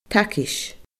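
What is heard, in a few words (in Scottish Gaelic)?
"taigeis", Scottish Gaelic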